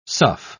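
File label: "sough", British English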